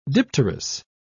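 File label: "dipterous", British English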